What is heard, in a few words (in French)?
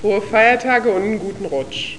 allemand, voeux